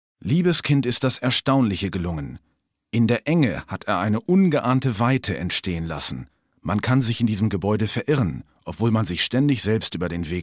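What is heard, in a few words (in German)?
Doku, Männer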